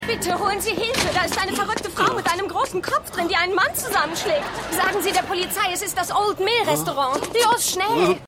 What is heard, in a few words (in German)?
Frau, Stimmproben; Seinfeld